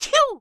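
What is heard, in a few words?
pet woman; mir2; crystal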